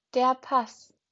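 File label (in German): "Pass", German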